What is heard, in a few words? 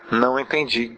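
no understand, Brazilian Portuguese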